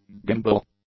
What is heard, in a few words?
"gimbal", English; nouns